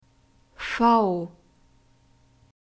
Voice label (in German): "v", German alphabet